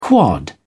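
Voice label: "quad", British English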